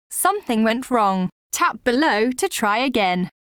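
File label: wake up error, British English; Bixby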